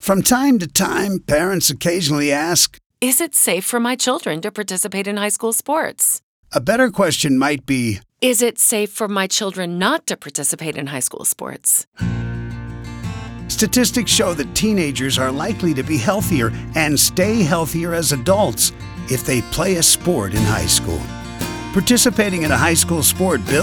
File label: audio spot